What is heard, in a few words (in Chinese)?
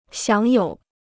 "享有", Chinese